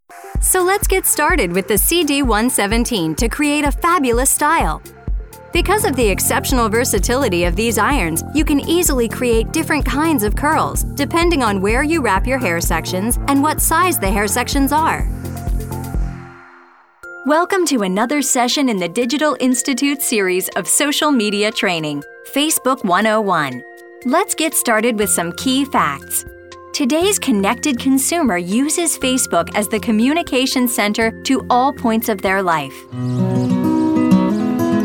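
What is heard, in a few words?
female English US narration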